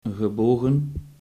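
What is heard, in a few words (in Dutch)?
"gebogen" Dutch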